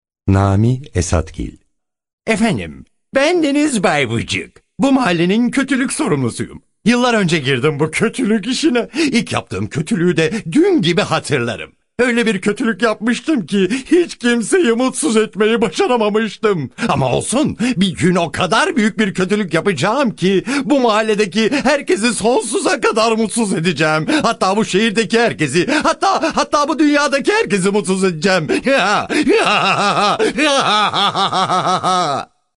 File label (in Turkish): animasyon